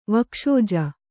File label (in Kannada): "ವಕ್ಷೋಜ", Kannada